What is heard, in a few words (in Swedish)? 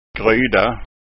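"gryda", Scanian Swedish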